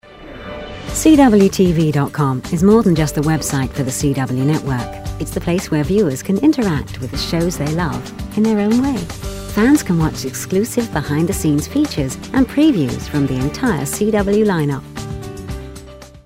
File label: anti announcer